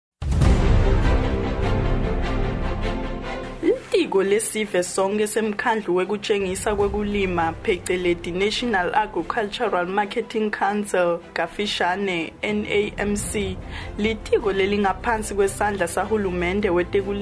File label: Swati Listeriosis